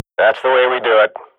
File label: radio bot cheer, swat; Global Offensive; player vo